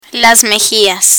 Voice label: "mejillas", Spanish; face vocabulary; learn spanish

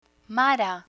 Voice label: "mara", Spanish

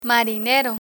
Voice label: "marinero", Spanish; vocabulary; learn spanish

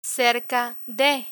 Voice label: "cerca de", Spanish; learn spanish

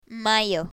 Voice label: "mayo", Spanish; months of the year; learn spanish

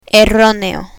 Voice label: "erroneo", Spanish

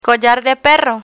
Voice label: "collar de perro", Spanish; spanish vocabulary; learn spanish

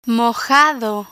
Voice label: "mojado" Spanish; learn spanish

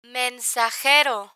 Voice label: "mensajero", Spanish; vocabulary; learn spanish

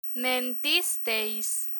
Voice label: "mentisteis", Spanish; learn spanish

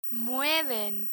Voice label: "mueven" Spanish; irregular spanish verbs; learn spanish

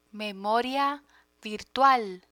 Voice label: "memoria virtual", Spanish; technology vocabulary; learn spanish

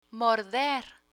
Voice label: "morder" Spanish

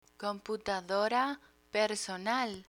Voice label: "computadora personal", Spanish; computer vocabulary

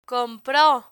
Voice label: "compro", Spanish

